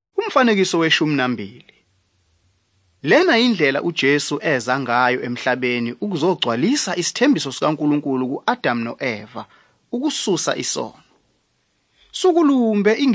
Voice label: zulu bible; good news